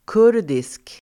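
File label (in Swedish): "kurdisk", Swedish; kurdisk adjektiv; Uttal: [k'ur:disk]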